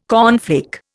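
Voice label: "cornflake", Indian English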